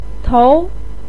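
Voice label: "tou", Chinese pinyin, second tone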